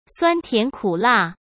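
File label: "酸甜苦辣", Mandarin Chinese; chinese-flashcards; hsk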